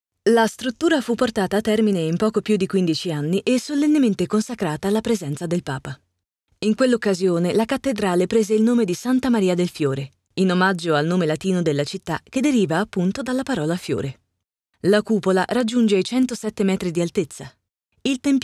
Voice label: ITA audioguida